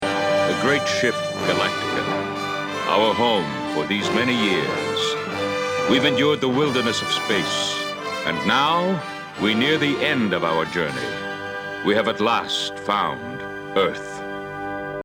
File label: opening narration; 1980 series